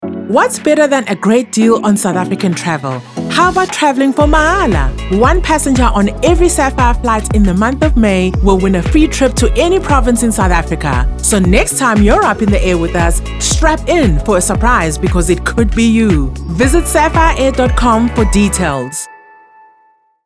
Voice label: Travel Medium Sell